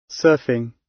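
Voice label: "surfing", British English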